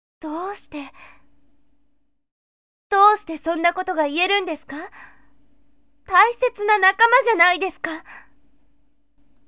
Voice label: sample girl